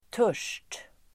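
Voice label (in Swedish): "törst", Swedish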